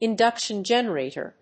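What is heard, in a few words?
"induction generator", English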